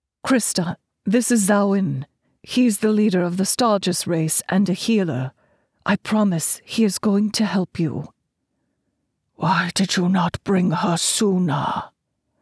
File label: dialogue British accent Sci Fi Fantasy; My Fair Traitor